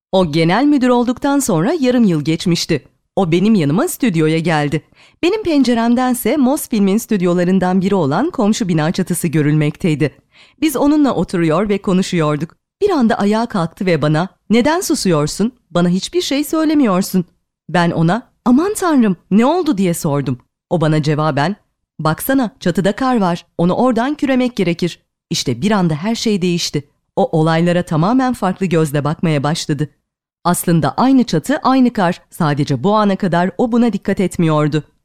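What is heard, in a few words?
Turkish, female, narration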